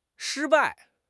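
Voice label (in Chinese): "shi1 bai4", Chinese; HSK; HSK4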